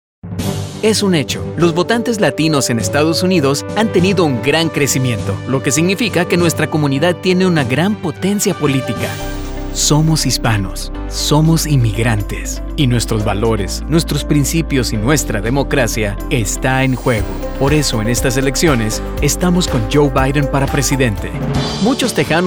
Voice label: Spanish Political

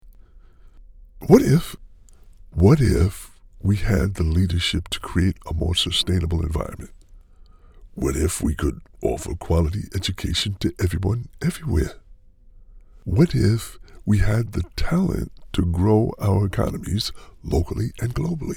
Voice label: VO Educational; Global Educational Non-Profit Video

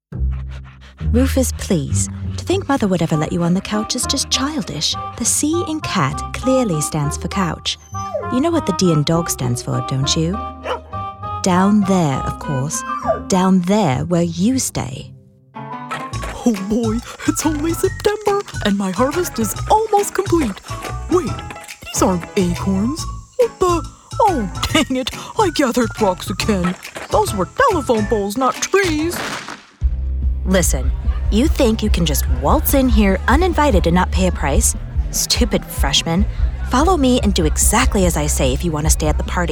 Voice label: Character

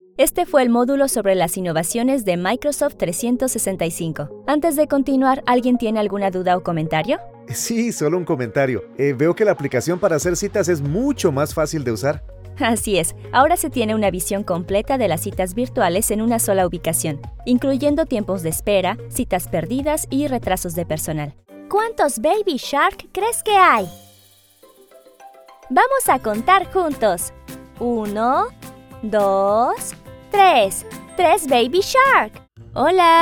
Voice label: E-learning ES-LATAM neutro

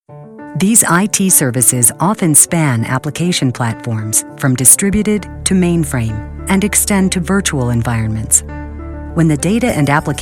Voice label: Narration

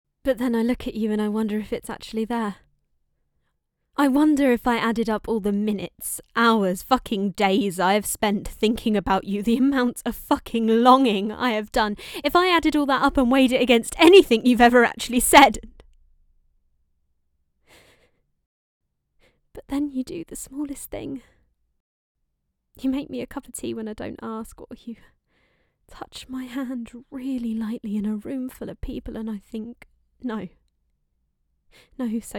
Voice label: Boys Radio Drama

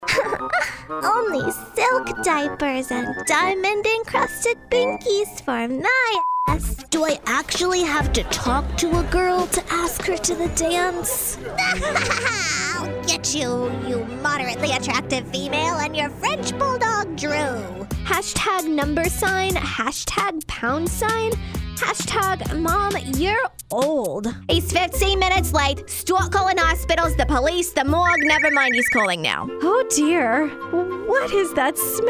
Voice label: Character

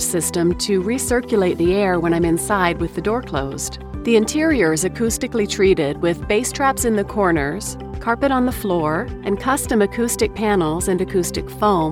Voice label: Booth tour w music

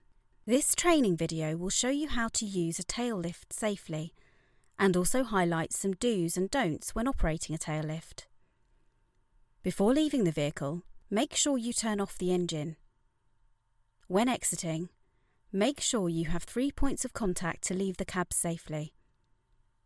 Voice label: E-learning Tail lift

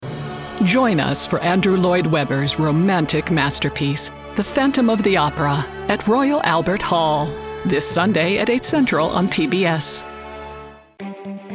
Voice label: TV Promo

